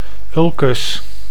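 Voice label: "ulcus", Dutch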